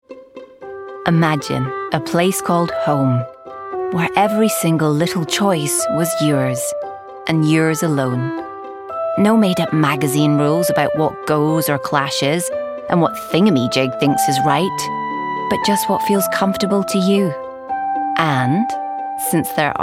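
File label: COMMERCIAL, Female; SOFOLOGY COMMERCIAL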